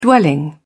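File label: "dwelling", British English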